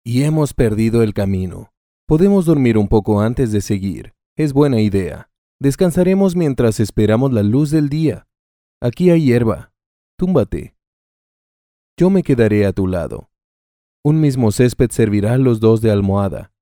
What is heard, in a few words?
locutor mexicano mexican voiceover